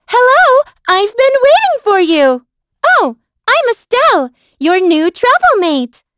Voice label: vox, en; kingsraid